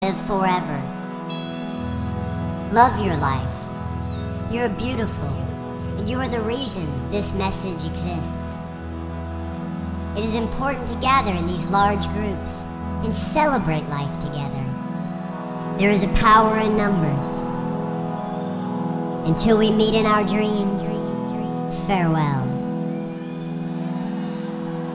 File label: Narration Outro